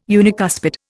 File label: "unicuspid", Indian